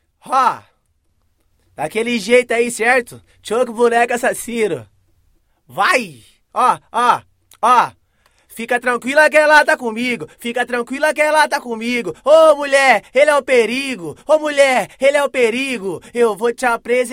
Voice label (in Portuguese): acapella